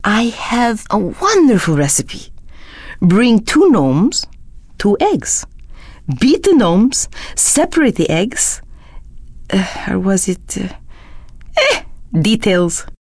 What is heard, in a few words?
Space Goat fem silly